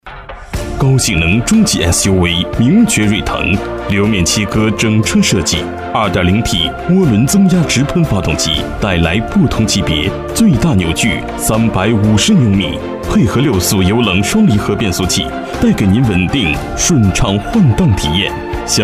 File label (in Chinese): 男, 广告, SUV; 名爵锐腾